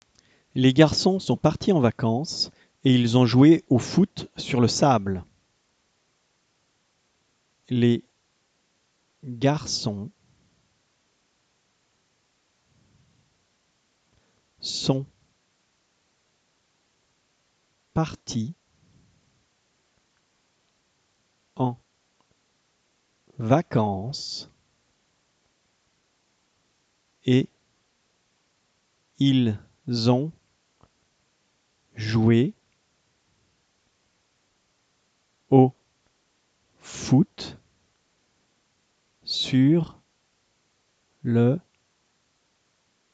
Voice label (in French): dictee bilingue homophones grammaticaux; orthographe